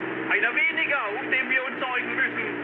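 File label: German player killed enemy mood high, speech; War Thunder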